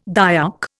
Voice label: "Dayak", Indian

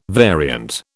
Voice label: "variant", British

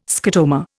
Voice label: "scotoma", Indian

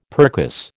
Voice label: "percuss", American

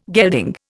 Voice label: "gelding", Indian

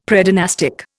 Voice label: "Predynastic", Indian; Dictionary

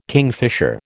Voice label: "kingfisher", American

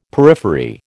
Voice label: "periphery", American

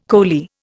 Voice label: "coley", Indian

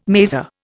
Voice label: "mazer", Indian

